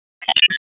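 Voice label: Raleigh Police North District Tactical, p25; wake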